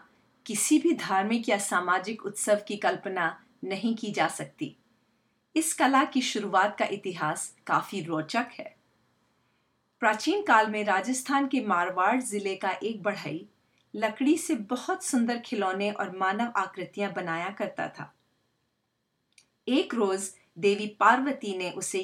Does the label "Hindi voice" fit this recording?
Yes